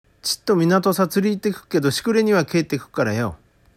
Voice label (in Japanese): 「しくれ」, 旭弁 銚子弁; 日暮れ; 「ちっと港さ釣り行ってくっけどしくれにはけぇーてくっからよ」; （訳）ちょっと港へ釣りに行ってくるけど夕方には帰ってくるからさ